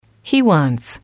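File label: he wants, English, female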